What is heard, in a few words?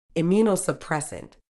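"immunosuppressant", British English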